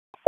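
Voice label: Raleigh Police Special Operations Tactical, p25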